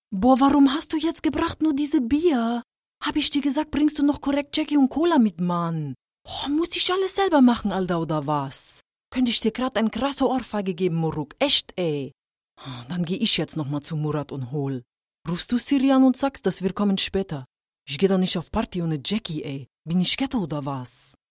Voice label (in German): Akzent Tuerkisch